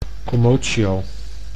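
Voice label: "commotio", Dutch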